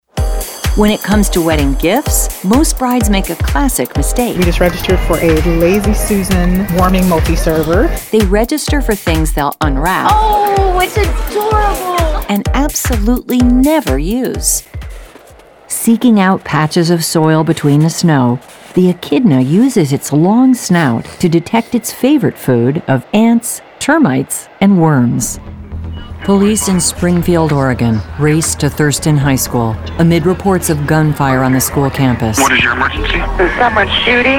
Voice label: Narration, female voices